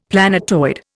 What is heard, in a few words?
"planetoid", Indian; Dictionary